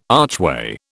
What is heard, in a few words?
"archway", British